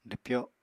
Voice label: "dwpyo", Apatani